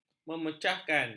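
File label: "memecahkan", Malay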